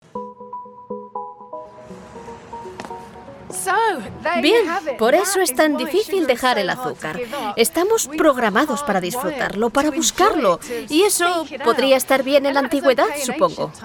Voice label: Spanish F Healthcare Dubbing